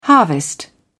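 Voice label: "harvest", British English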